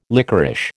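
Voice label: "lickerish", American English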